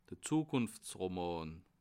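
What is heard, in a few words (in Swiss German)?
"zukunftsroman", Bas Rhin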